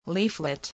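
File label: "leaflet", English word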